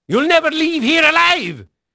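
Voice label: Dutch male, voice; Pirates Of The Caribbean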